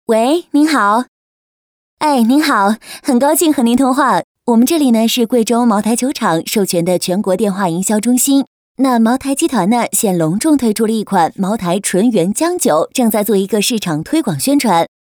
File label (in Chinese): 女 彩铃; 群呼; 茅台酒厂